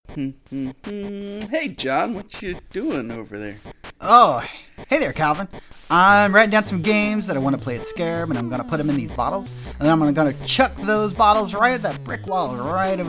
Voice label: Register Ad; SCARAB